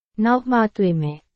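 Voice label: Burmese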